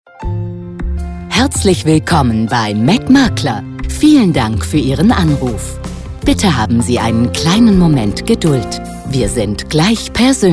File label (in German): Vor Melden Ansage; MC Makler; Telefonansage Immobilien – Makler